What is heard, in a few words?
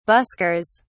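"buskers", British English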